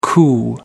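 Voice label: "coup", English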